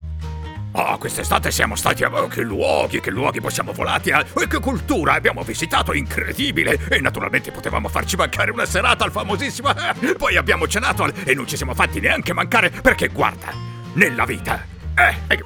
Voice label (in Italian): carattere interpretato ironico